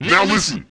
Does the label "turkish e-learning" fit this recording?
No